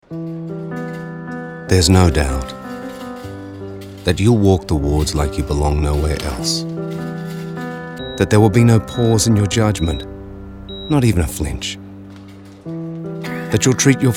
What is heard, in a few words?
Narration